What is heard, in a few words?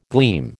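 "gleam", American English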